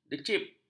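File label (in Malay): "decip", Malay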